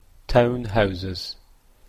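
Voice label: "town houses", Canadian English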